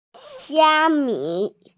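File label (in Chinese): "xia1mi5", Mandarin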